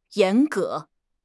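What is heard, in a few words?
"yan2 ge2", Chinese; HSK4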